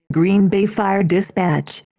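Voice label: Green Bay Fire Dispatch, Female Voice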